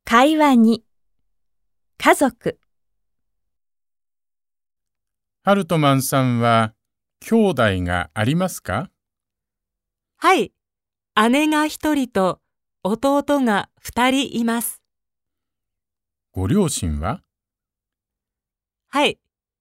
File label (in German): dialog; konnichiwa band 2 cd 1